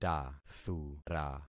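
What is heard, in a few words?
"tathura", concatenated syllables; Affixing experiment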